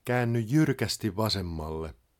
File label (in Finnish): Sharp Left, Finnish male; Opas